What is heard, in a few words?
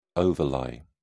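"overlie", British English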